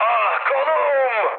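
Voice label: Turkish damaged pilot, mood med, speech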